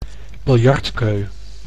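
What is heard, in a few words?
"biljartkeu", Dutch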